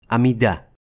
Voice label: "amidah", Hebrew

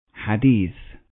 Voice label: "Hadith", Arabic

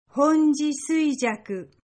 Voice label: "Honji", Japanese

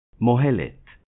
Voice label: "Mohelet", Hebrew

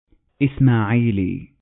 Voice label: "Ismaili", Arabic

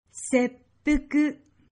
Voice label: "Seppuku", Japanese

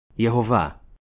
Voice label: "Yahweh", Hebrew

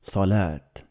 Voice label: "Salat", Arabic

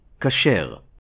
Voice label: "Kosher", Hebrew

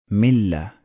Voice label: "Mullah", Arabic

